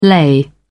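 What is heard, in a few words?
"lay", British English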